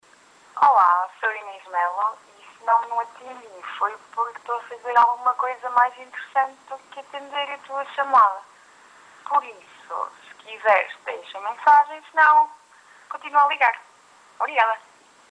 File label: voicemail